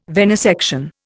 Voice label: "venesection", Indian